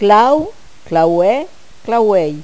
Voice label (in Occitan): "clau claueir", Gascon